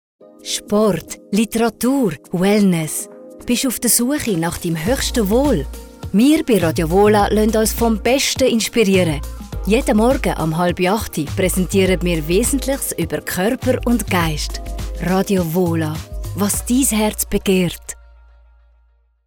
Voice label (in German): Werbung Radio; SPRECHERIN; Wohla